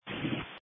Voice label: Police Tactical Encrypted